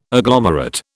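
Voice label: "agglomerate", British English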